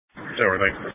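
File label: Police Patrol (Dispatch)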